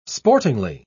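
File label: "sportingly", British English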